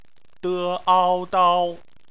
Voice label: "dao", Chinese; phonetics; bpmf

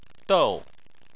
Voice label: "dou4", Chinese

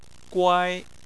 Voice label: "guai1", Chinese Phonetics

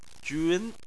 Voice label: "jun2", Chinese

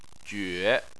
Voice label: "jue3", Chinese

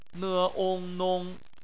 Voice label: "nong", Chinese; bpmf

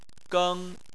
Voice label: "geng1", Chinese

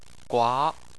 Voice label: "gua2", Chinese Phonetics

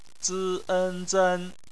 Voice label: "zen", Chinese

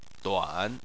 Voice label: "duan3", Chinese

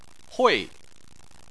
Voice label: "hui4", Chinese

